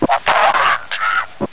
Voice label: zombie male, report in